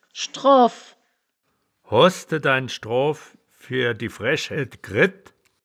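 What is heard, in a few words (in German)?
"schdroaw", Wittlicher Wörterbuch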